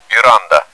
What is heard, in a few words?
porch, bot; cstrike